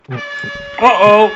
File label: uh oh